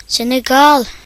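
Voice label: "Senegal", Norwegian; gcompris; geography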